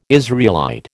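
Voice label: "Israelite", American English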